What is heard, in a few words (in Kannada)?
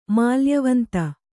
"ಮಾಲ್ಯವಂತ", Kannada; mālyavanta; noun